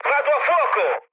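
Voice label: Italian on fire mood med, speech; War Thunder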